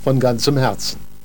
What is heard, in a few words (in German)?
"von ganzem herzen", speech; 55khz; Terra Sound Library